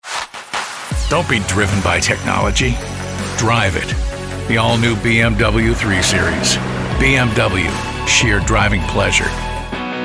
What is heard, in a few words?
Commercial